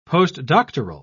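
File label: "postdoctoral", British English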